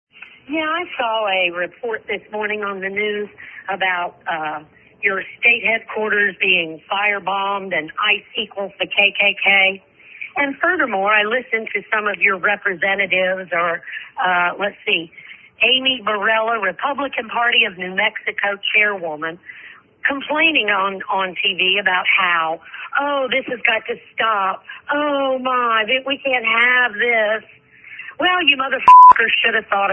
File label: Unhinged voicemail; new mexico